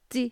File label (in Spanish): lluvia, Mazateco; INALI